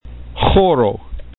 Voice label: "hxoro", esperanto